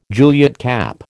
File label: "Juliet cap", American